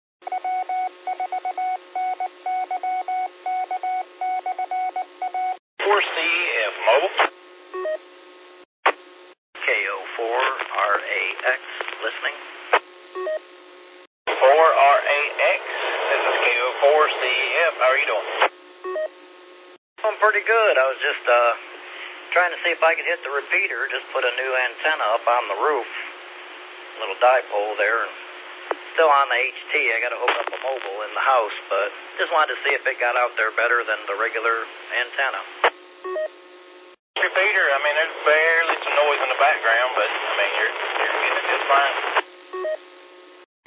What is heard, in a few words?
qso rec simplex logic